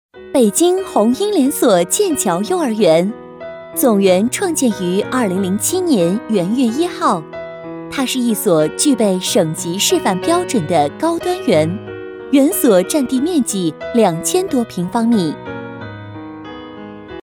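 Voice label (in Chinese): 专题幼儿园亲切温暖; 专题剑桥幼儿园亲切温暖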